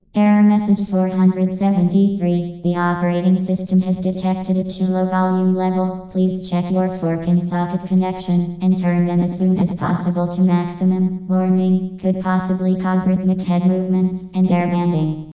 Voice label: Computer Voice; GLaDOS

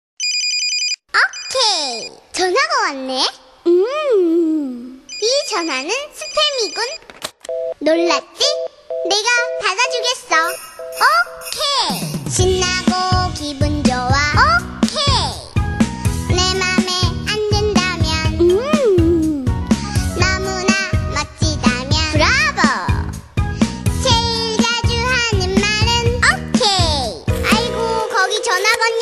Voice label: Little Girl Talking

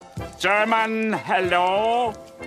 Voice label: German Hello Funny

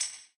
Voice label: Wow Whisper

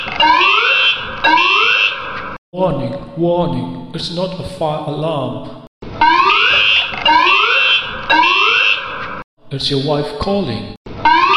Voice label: Loud Wife Calling

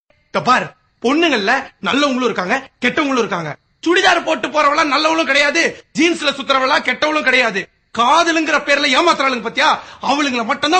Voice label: Tamil Dialogue

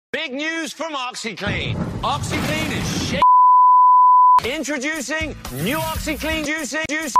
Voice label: Funny

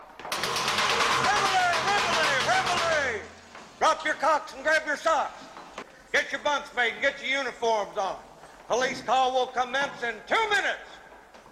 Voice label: Drill Sergeant

